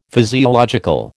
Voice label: "physiological", American